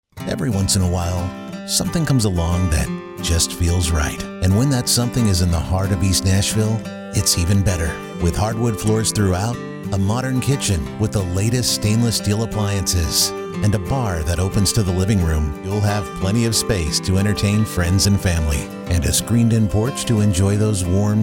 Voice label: english american real estate

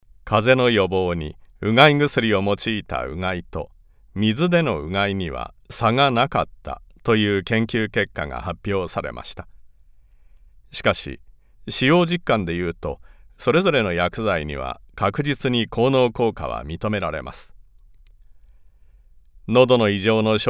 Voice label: japanese narration

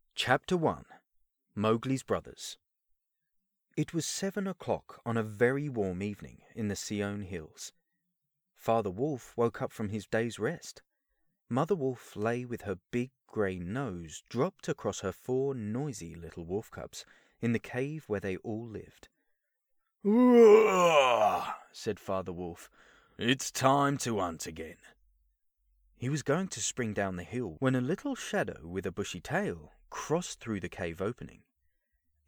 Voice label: english british narration

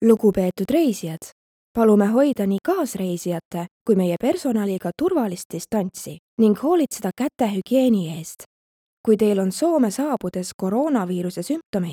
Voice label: estonian

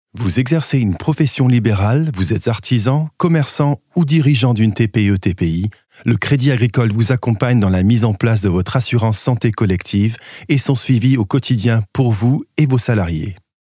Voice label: French corporate videos

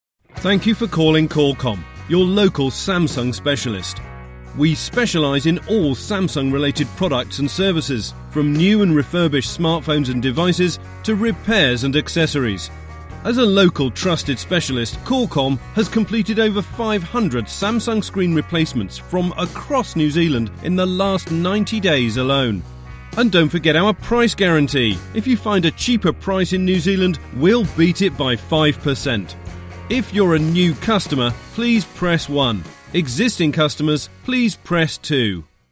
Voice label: English British IVR

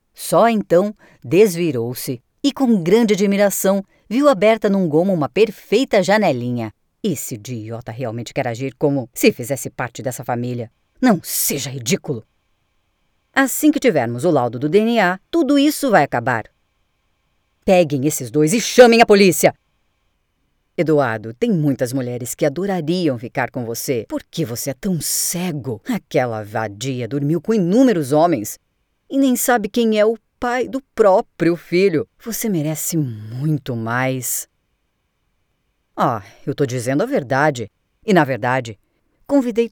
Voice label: Portuguese Brazilian impersonations

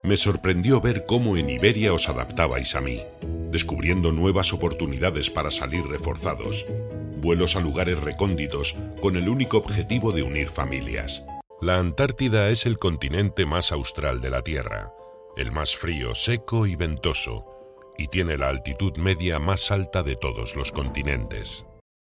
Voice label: spanish narration, Spain; professional Spanish dubbing actor; voice actor; Commercial Demo

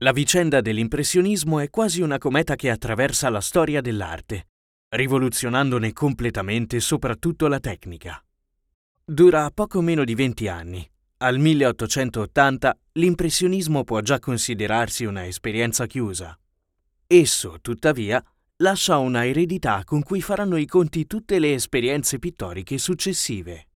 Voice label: italian documentaries

